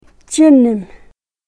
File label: "chinim", karuk